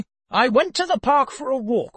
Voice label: happy